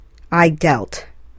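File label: "I dealt", English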